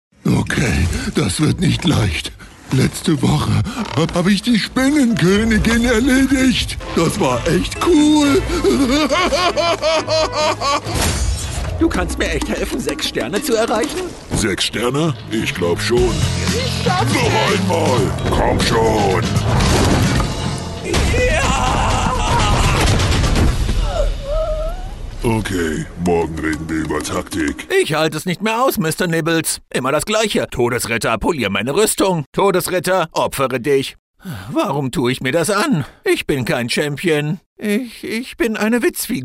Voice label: german animation; "Guten Tag" und Hello